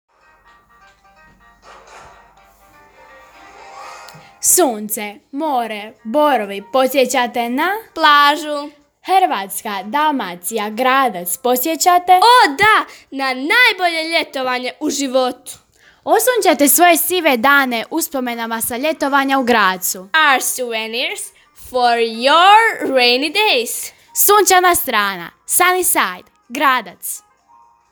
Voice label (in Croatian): radio reklama